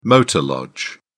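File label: "motor lodge", British English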